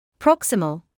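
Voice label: "proximal", British English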